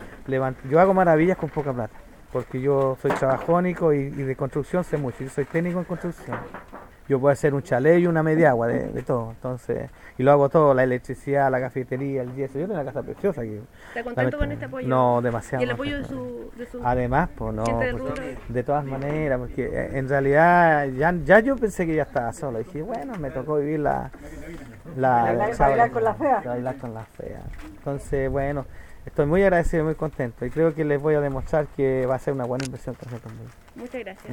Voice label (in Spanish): CUÑA APICULTOR DAMNIFICADO; apicultor que perdió todo en incendio de San Antonio trabajaba con abejas